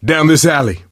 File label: misc directional, coach, survivor voice